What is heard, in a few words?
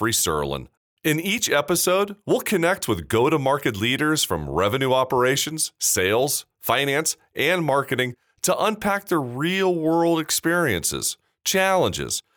Podcast Intro